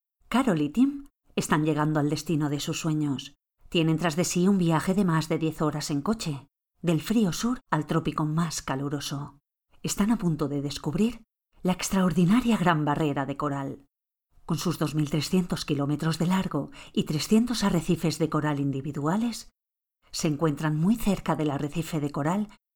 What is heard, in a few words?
Castilian Spanish; VOICES